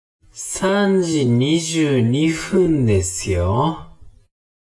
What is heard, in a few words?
man